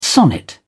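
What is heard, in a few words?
"sonnet", British English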